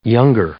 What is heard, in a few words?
"younger", English; adjective